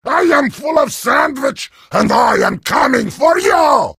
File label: heavy sandwich taunt, vo